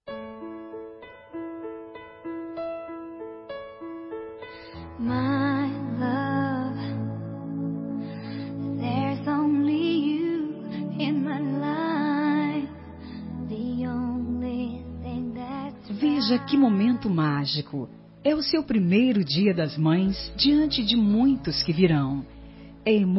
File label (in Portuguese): futura mae fem; dia das maes para futura mamae voz masculina